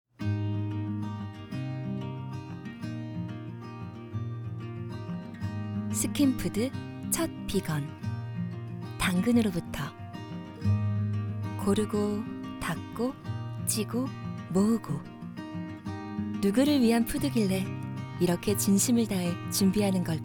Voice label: 광고; 스킨푸드

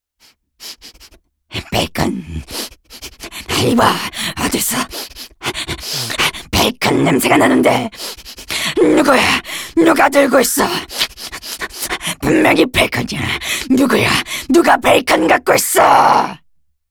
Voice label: game 몬스터 거친 사악한

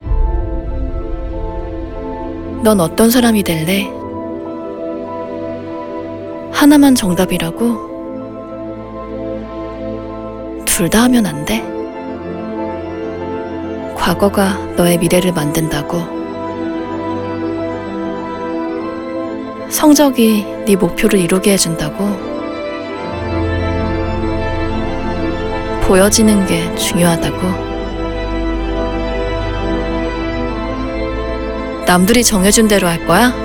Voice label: 차분한 20대; 나이키

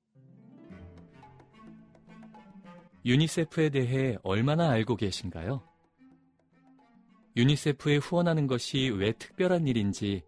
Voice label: 부드럽고 친절한

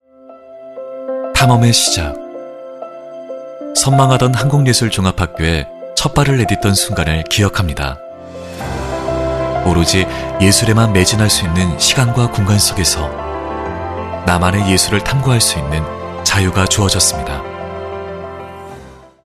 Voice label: 성우 내레이션 편안함 자연스러움; 한국예술종합학교

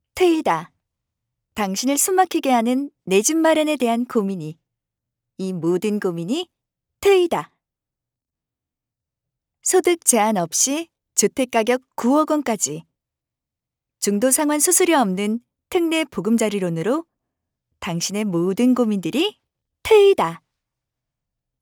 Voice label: 강조하는 느낌 살린 버전으로; 한국주택금융공사

